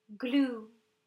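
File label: "Glue", English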